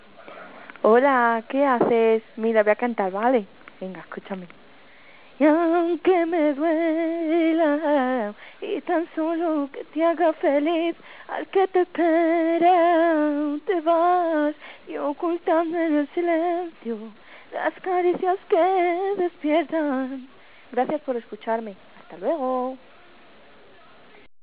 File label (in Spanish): buzon de voz